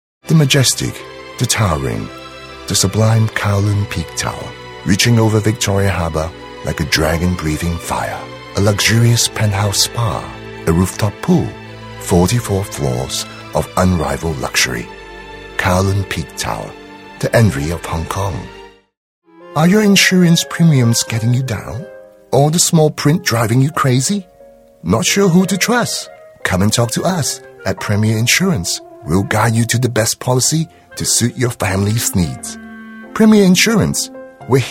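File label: British, TV commercial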